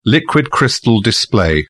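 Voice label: "liquid crystal display", British English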